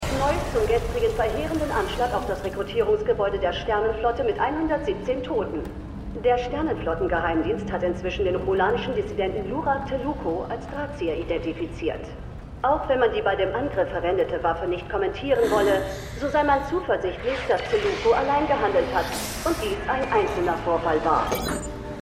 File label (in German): Nachrichtensprecherin; Star Trek Picard